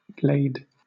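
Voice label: "glade", British English; pronunciations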